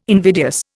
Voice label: "invidious", Indian

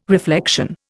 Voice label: "reflection", Indian

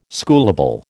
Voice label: "schoolable", American

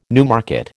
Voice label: "Newmarket", American

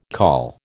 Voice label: "call", American

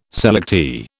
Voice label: "selectee", British

